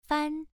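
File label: "fan" Mandarin, first tone, pronunciation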